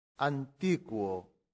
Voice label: "antiquo", latin; santillana